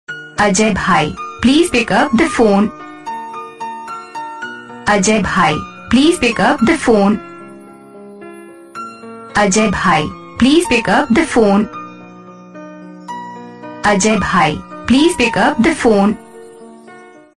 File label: Please Pickup The Phone Hindi Ringtone